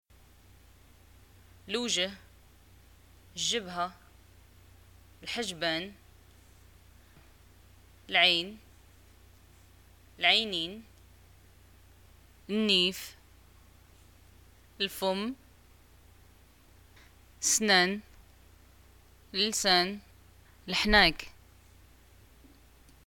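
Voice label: face parts, Moroccan arabic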